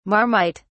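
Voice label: "marmite", British English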